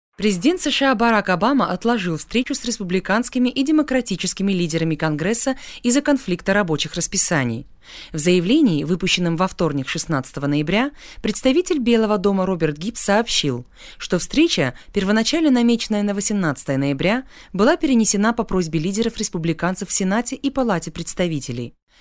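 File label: Russian Russia female narrative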